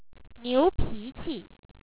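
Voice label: "niu2pi2qi", Chinese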